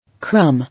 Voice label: "Crumb" English